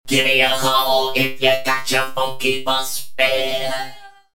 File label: classic robot